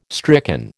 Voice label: "stricken", American

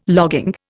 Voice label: "logging", Indian

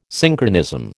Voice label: "Synchronism", American

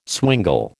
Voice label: "swingle", American

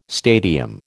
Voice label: "stadium", American

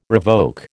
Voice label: "revoke", American

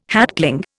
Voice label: "hatchling", Indian

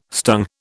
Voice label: "stung", British

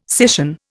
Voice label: "scission", Indian

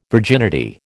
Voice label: "virginity", American

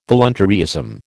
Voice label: "voluntaryism", American

